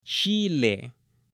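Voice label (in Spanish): "chile" Spanish, female; ALIMENTOS; AppLenguaje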